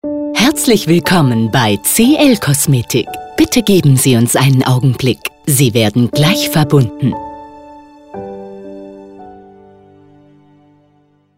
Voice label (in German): Cosmetic Ansage vor Melden